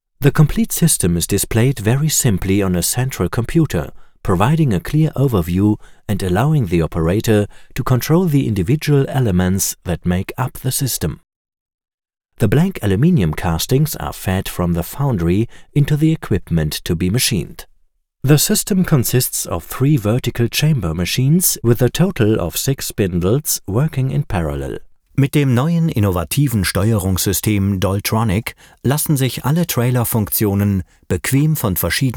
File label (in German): englund deutsch